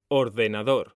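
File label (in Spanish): "ORDENADOR" Spanish, male; mekanta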